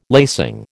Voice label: "lacing", American

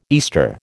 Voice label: "Easter", American

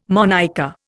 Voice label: "monicker", Indian

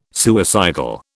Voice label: "suicidal", British

